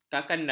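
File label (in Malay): "kakanda", Malay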